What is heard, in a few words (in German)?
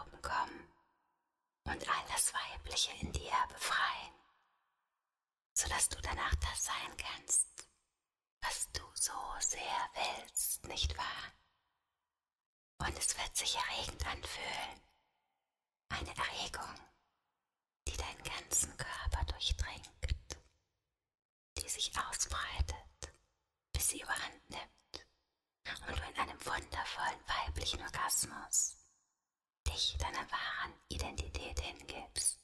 ASMR Feminisierung, erotische Hypnose; Eine erotische Hypnose